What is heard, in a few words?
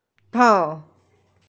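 "chang4", Mandarin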